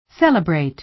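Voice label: "celebrate", American English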